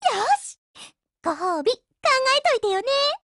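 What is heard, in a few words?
WIN Japanese, Voice; GF Alarm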